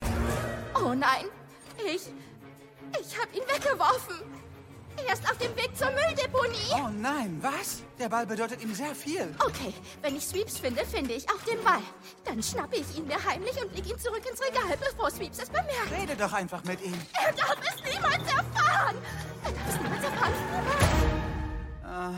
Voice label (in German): Trickfilm Kinder